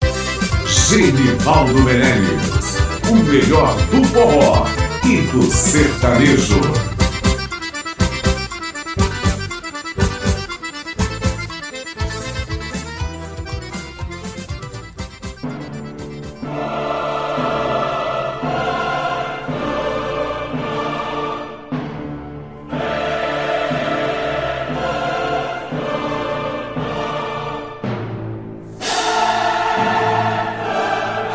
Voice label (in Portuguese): vinheta abertura completa